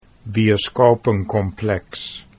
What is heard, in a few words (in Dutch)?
"bioscopencomplex", Dutch